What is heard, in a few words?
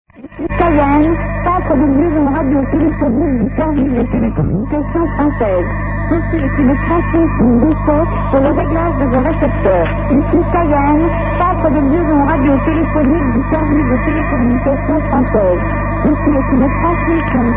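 upper sideband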